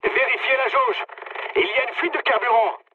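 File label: French tank damaged mood high; War Thunder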